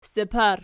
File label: "sapar", Archi